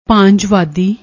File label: "bhaanjvaadi", Punjabi